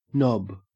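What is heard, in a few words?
"nob", Australian English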